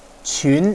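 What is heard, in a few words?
"qun2", Chinese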